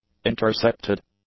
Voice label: "intercepted", English; adjectives

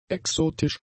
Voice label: "exotisch", German; adjectives

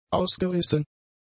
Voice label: "ausgerissen", German; adjectives

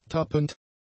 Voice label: "tappend", German; adjectives

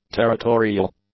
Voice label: "territorial", English; adjectives

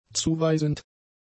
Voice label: "zuweisend", German; adjectives

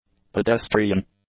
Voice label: "pedestrian", English; nouns

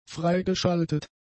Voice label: "freigeschaltet", German; adjectives

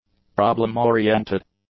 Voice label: "problem-oriented", english; adjectives

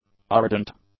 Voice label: "ardent", English; adjectives

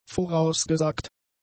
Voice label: "vorausgesagt", German; adjectives

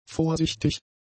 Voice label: "vorsichtig", German; adjectives

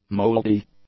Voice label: "mouldy", English; adjectives

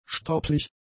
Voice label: "stopplig", German; adjectives

